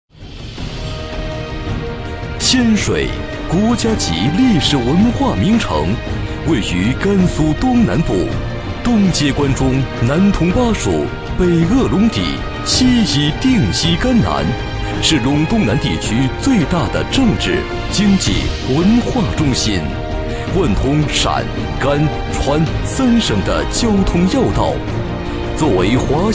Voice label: 男 专题 激情大气

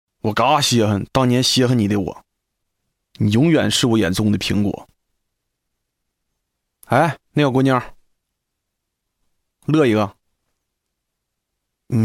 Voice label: 男 东北方言

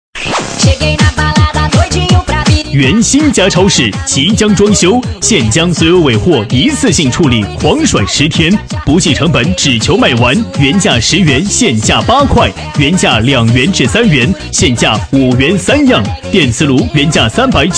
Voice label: 男 促销 超市, 促销男配; 原新佳超市

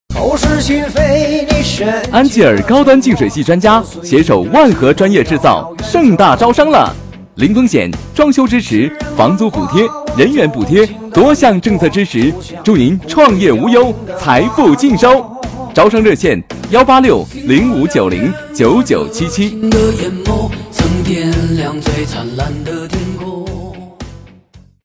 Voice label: 男 促销 净水器, 促销男配; 安吉尔净水器

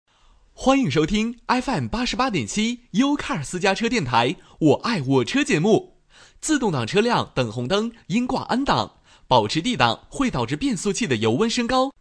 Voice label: 男 广告 私家车

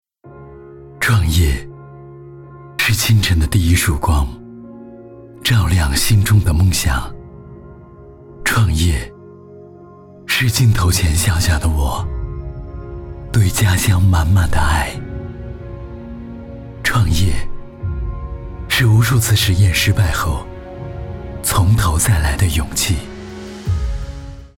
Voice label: 男 中文 旁白类 品质讲述

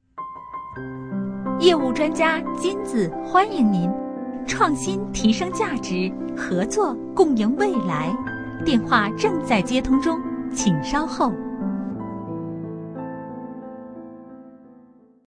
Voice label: 女 彩铃 彩铃集团

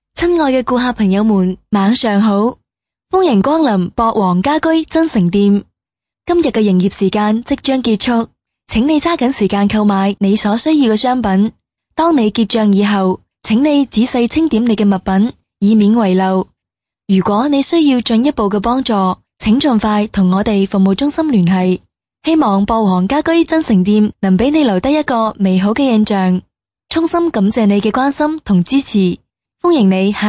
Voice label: 粤语 商场用语, 女

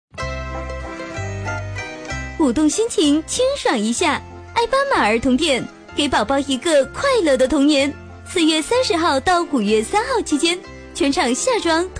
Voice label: 女 促销 童装

